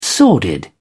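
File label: "sordid", British English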